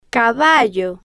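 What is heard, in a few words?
"caballo", Spanish, slow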